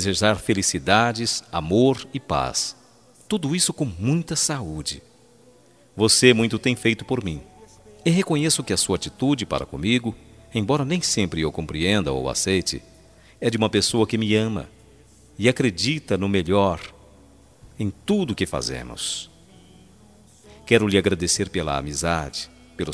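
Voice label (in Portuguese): Aniversário Romântico Evangélico Masculino, telemensagem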